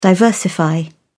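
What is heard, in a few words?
"diversify", British English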